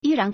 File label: "yīrán", Chinese; 依然; adverb; still; as before; Modern Chinese 现代汉语; Function Words